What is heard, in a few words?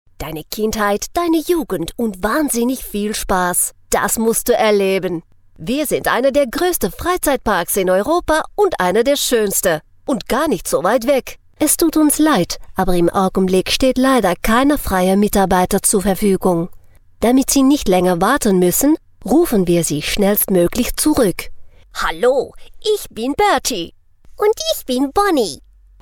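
german female character; voice demos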